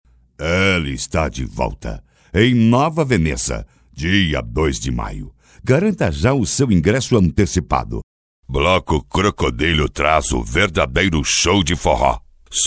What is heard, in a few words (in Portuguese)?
chamadas vinhetas para festas voz locutor locucao impactante